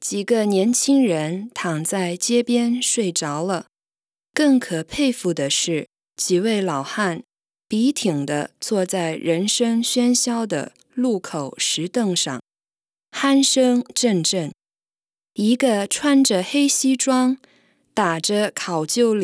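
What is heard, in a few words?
chinese mandarin female narration; voice demos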